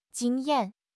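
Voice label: "jing1 yan4", Mandarin Chinese; HSK4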